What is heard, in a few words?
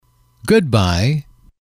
"goodbye", M